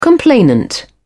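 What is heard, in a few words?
"complainant", British English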